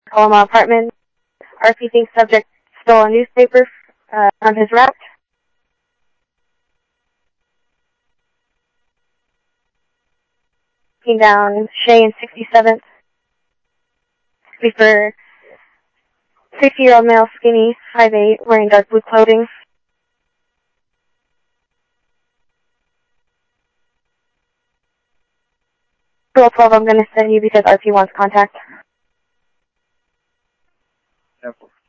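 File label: police radio dispatch, ScottsdalePolice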